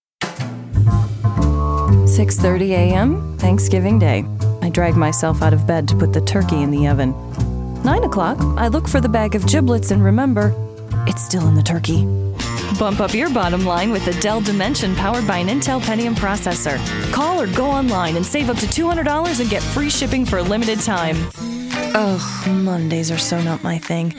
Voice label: commercial